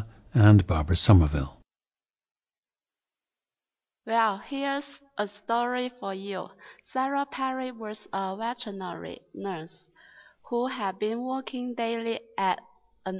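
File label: guangxi, International Dialects of English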